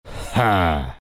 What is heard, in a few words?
happy; zh; Dota 2